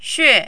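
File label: "xue4", mandarin, falling tone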